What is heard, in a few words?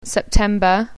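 "september", English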